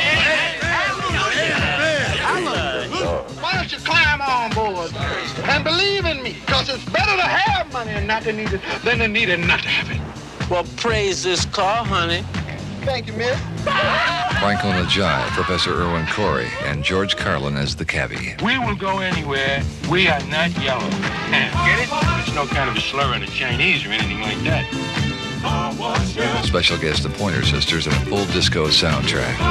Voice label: Car Wash Movie Spot

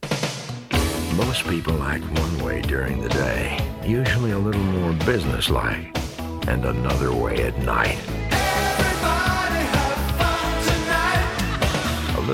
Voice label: Radio Commercials - Beer; Micholob

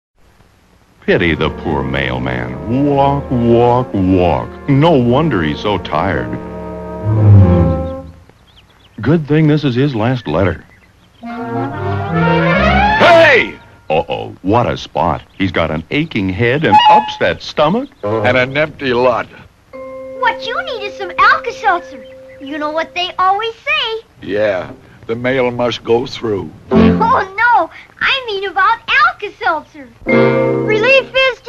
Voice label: Commercial; Alka Seltzer; Buster Keaton; 1950s

